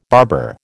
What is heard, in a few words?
"barber", American